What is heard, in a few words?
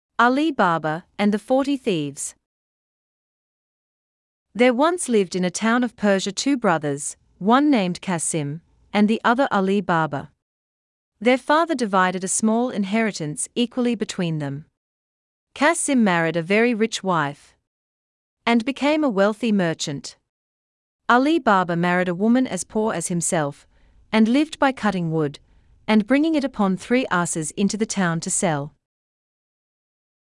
australian